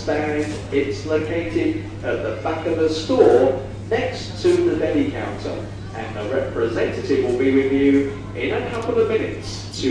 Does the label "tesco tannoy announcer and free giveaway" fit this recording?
Yes